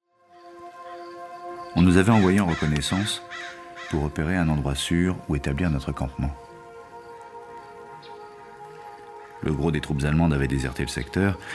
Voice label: narr; Enregistrements dans la cabine speak